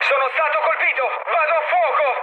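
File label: Italian on fire mood med, speech; War Thunder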